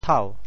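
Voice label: "thau2", Teochew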